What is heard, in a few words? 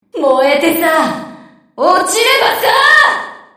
Enemy Voice Damaged Under Attack; New Mass-Produced Aircraft Carrier Princess